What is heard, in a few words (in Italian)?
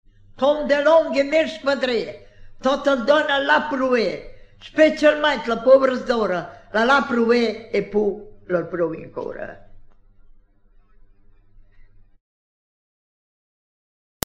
indovinello, romagna